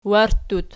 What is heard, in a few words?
"warttut", Archi